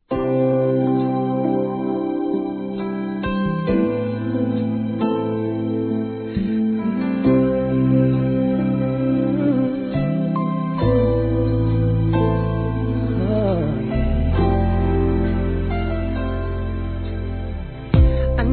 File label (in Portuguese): Telemensagens Amor Masc; Telemensagens 2017